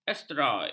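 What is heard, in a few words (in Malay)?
"asteroid", Malay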